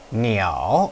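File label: "niao3", Chinese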